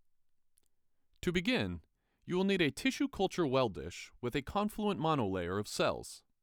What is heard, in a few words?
Scratch Assay, Voiceover